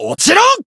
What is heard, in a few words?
finish, jp